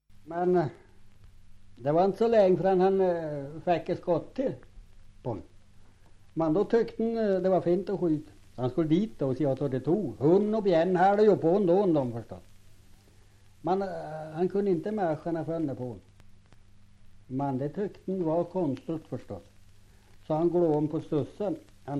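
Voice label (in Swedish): Hotagen Jämtland, dialekter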